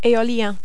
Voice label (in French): "eolien", French